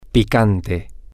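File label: Spanish, male; spicy Hot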